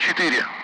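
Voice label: Speech Sound Russian Common Allied Flight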